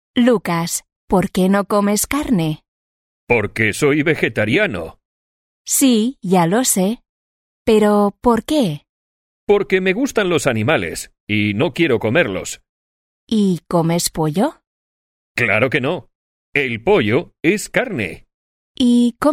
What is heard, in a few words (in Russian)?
urok dialog